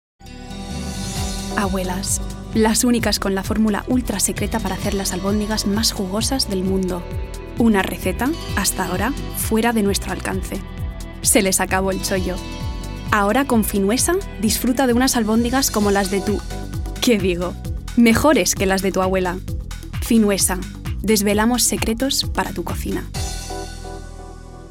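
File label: Spanish Commercial; GoLocalise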